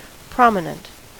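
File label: "prominent", American English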